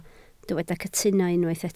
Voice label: "cytuno", Welsh